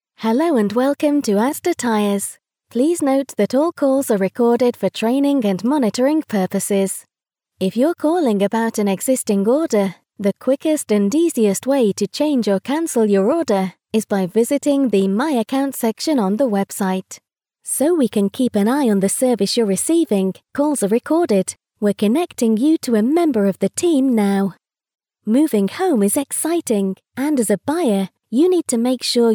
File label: IVR On Hold